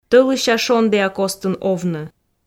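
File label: "тӧлыся-шондіа костын овны", Komi, female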